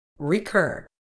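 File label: "recur", medical English, British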